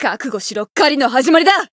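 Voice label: Voice encounter, Japanese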